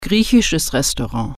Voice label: "greek restaurant", German